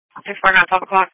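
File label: Police Patrol Dispatch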